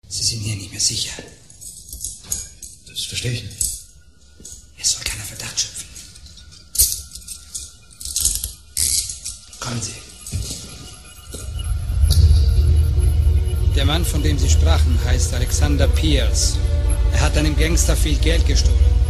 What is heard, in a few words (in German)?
Synchron; The Tourist